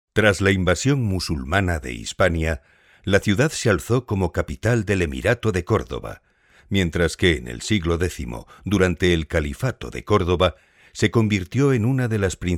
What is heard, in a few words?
locutor spanish voiceover